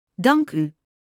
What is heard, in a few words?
Dutch Thank you; Greetings